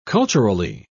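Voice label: "culturally", British English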